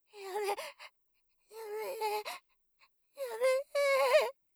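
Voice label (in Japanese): "やめて" Japanese; 効果音なし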